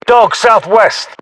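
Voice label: threat dog southwest, British; battlechatter; localized english; uk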